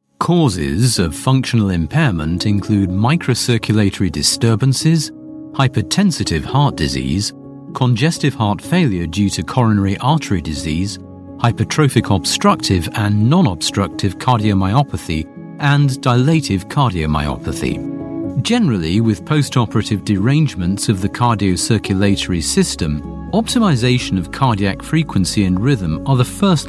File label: medical explainer